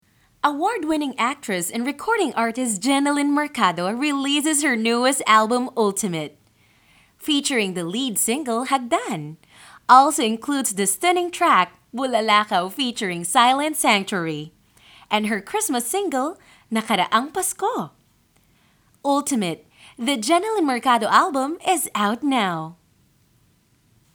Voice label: English (Announcer)